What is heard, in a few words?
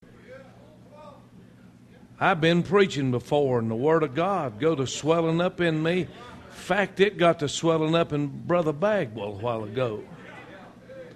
preacher